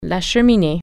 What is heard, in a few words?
chimney, french